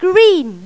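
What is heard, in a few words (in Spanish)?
"verde", Spanish; Pipo-Aprende musica con Pipo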